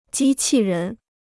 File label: "机器人", Chinese